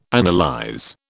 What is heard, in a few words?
"analyze", British